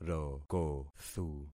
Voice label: "rokothu", concat syllables; Affixing experiment

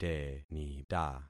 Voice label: "tenita", concat syllables; Affixing experiment

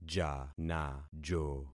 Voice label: "janajo", concat syllables; Affixing experiment

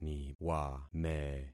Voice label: "niwame", concat syllables; Affixing experiment

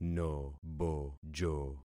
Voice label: "nobojo", concat syllables; Affixing experiment

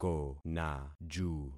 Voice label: "konaju", concat syllables; Affixing experiment

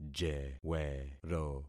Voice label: "jewero", concat syllables; Affixing experiment

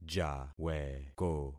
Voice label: "jaweko", concat syllables; Affixing experiment

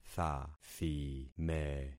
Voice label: "thathime", concat syllables; Affixing experiment

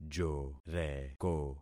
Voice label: "joreko", concat syllables; Affixing experiment

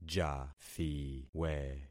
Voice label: "jathiwe", concat syllables; Affixing experiment

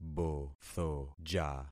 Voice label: "bothoja", concat syllables; Affixing experiment

